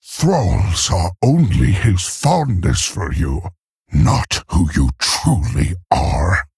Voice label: Male Tauren Idle